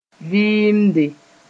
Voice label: "vɩɩmde", moore

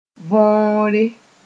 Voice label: "võore", moore

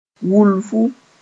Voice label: "wulfu", moore; small (opening)

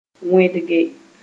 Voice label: "wẽdge", moore

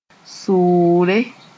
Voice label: "sʋʋre", Moore; grasshopper

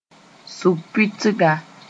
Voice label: "sʋg-pʋɩtga", Moore; median

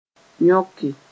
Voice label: "yõke", moore

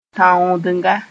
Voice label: "tãoodenga", moore; furuncle

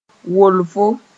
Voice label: "wolfo", moore

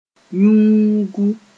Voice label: "yũugu", moore

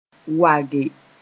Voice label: "wage", Mooré; to reinforce

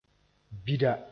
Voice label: "bida", moore; black (idea of)

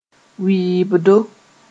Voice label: "wɩɩbdo", moore

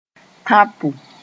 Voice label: "tãpo", moore; bow (for shooting)war

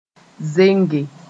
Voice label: "zenge", moore

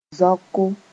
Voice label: "zaoko", moore

